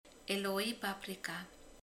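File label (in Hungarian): "pirospaprika", Hungarian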